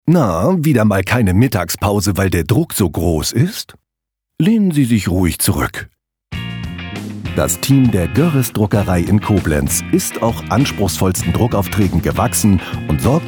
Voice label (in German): Radiospot mittag; Goerres Druckerei